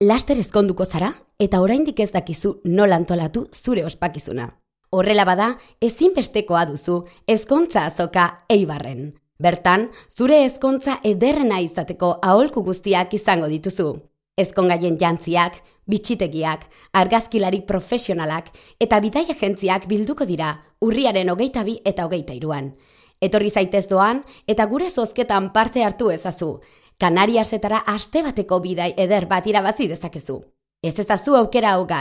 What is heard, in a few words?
eider euskera